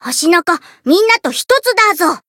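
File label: Voice limitbreak, Japanese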